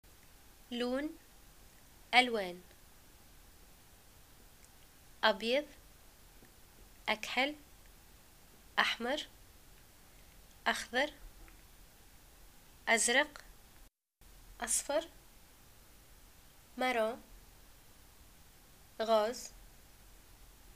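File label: shopping colors, Tunisian arabic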